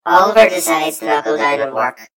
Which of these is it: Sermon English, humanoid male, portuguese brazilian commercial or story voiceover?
story voiceover